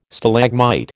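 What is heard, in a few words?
"Stalagmite", American English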